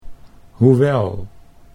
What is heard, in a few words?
"hoewel", Dutch